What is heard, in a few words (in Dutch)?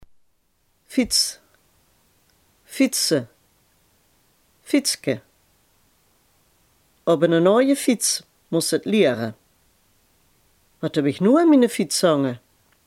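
"fiets" Limburgish dialect word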